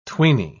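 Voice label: "tweeny", English, British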